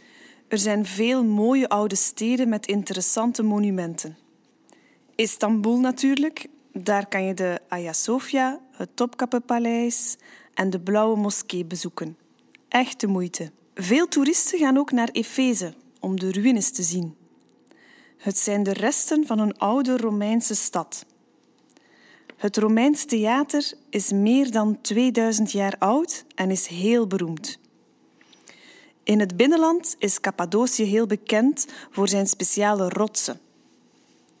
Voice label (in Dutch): reclame turkije